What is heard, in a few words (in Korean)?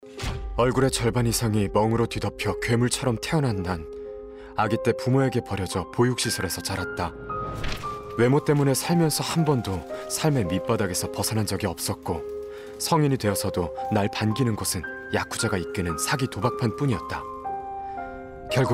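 여성 (Female) 광고 나레이션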